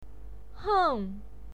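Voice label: "heng", Mandarin Chinese pinyin, fourth tone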